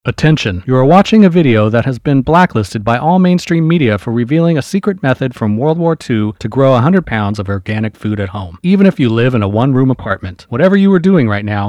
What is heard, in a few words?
Grow Organic Food Voice Over